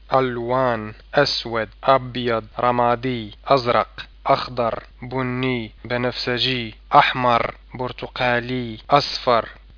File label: arabic; colors